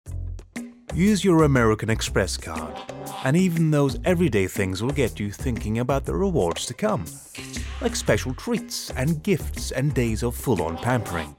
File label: Commercial Neutral Accent; AmEx